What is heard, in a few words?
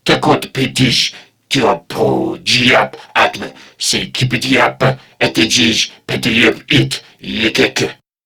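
Patrol Crewmen, English; Warframe; CorpusCrewmen; CrewmanA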